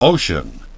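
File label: "ocean", English pronunciation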